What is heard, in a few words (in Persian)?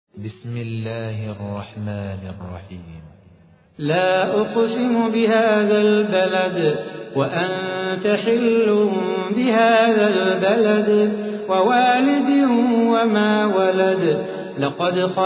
ترتیل سوره بلد; صلاح بوخاطر